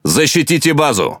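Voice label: Russian Speech Quick Commands Ally Base; World Of Warships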